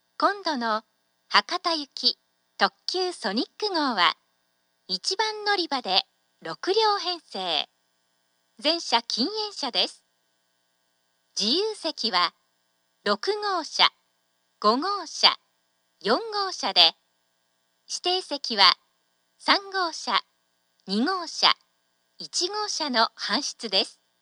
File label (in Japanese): 案内放送; 宇佐; ソニック 博多行き; なおこの案内放送は、のりば関係なく全てのホームで流れます。